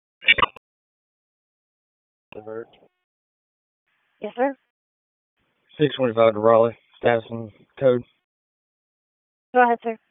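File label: Police Southwest District Dispatch; Raleigh; p25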